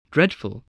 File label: "dreadful", English